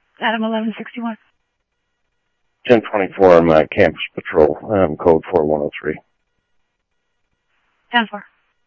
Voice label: Security, scanner audio